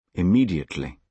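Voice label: "immediately", British English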